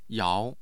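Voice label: "yao2", Mandarin Chinese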